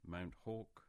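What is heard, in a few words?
"Mount Hawke", English; place-names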